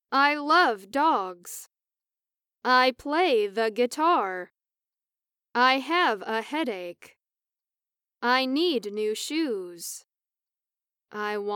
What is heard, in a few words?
Sentences Semi Natural